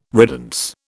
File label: "riddance", British